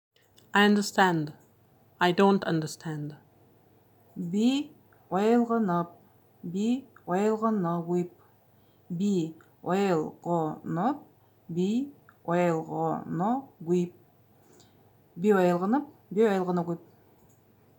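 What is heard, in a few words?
Buryat I understand I don't understand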